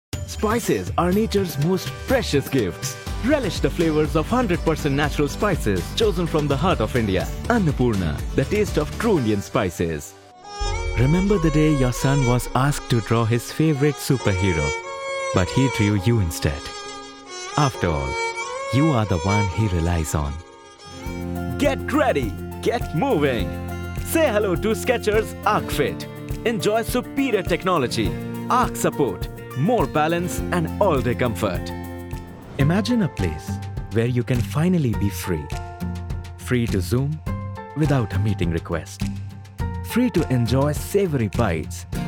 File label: English Commercial